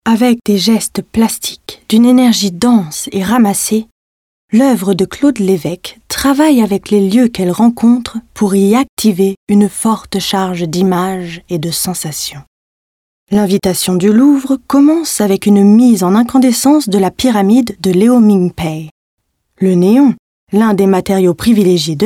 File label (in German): audioguide; fr